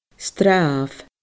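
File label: "stràbh", Scottish Gaelic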